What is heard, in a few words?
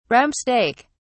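"rump steak", English, British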